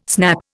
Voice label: "snap", Indian

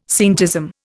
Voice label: "scientism", Indian

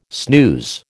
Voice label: "snooze", American

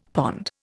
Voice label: "pond", Indian; Dictionary

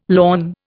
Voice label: "lorn", Indian

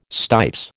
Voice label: "stipes", American

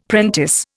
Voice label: "prentice", Indian; Dictionary

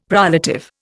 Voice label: "prolative", Indian; Dictionary

